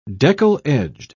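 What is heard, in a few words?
"deckle-edged", British English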